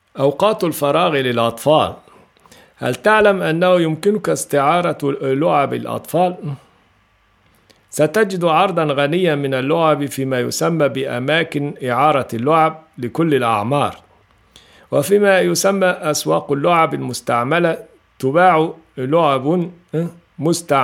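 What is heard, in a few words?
Arabic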